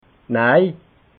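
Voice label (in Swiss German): "naj", Alsatian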